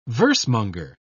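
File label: "versemonger", British English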